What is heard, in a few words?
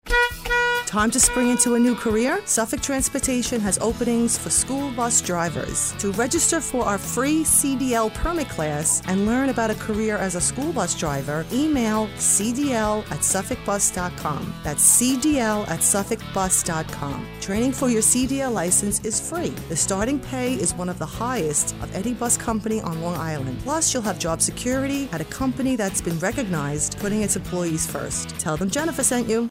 bus spot